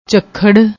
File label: "jhakharr", Punjabi